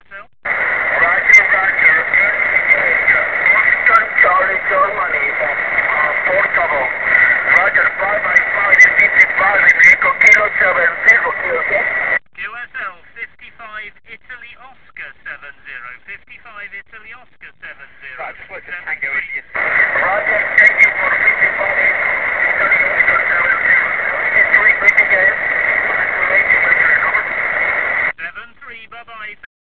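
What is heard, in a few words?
amateur radio contact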